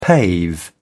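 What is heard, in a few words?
"pave", British English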